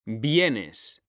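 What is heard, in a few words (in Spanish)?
"vienes", Spanish, male; mekanta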